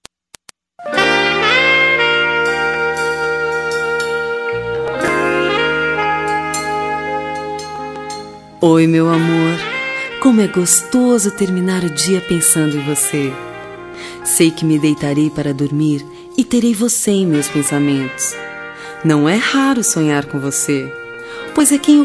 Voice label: ROM BOA NOITE FEM; Bom dia boa tarde boa noite

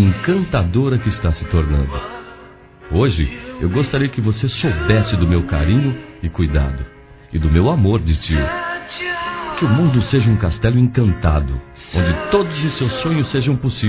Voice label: Aniversário 15 Anos Sobrinha Masculino; Telemensagens 2017; Aniversários

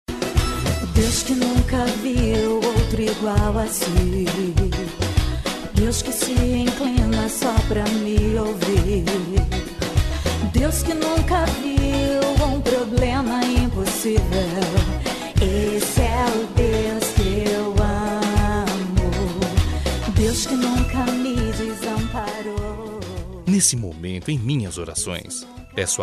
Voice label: DESCULPAS IRMAO EM CRISTO, M; Perdão

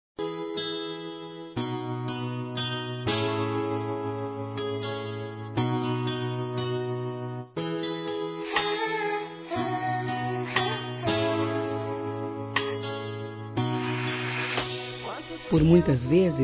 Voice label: Romantica Desculpa Romantica Feminino, Telemensagens; Românticas

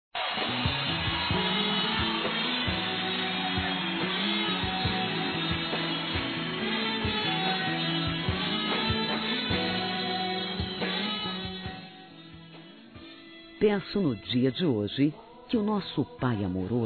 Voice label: Neutro Evangélico Feminino, Telemensagens Aniversários

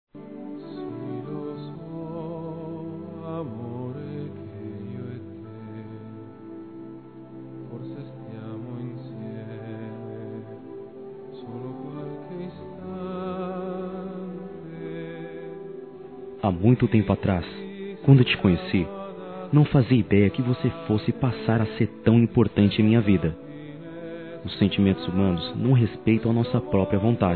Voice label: masc; Telemensagens; Conquistas Cantadas paqueras; Vamos Tentar; Telemensagens 2017

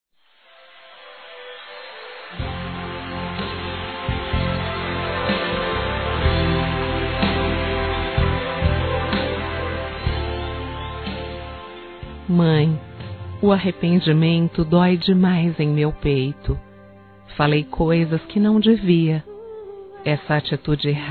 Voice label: Desculpas Feminino, Telemensagens, Dia das Mães; Telemensagens 2017

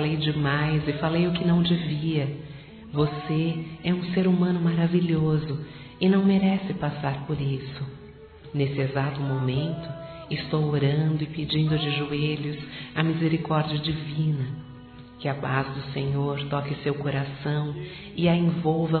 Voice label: Desculpa Para Irmã Feminino, Telemensagens; Desculpas; Telemensagens 2017

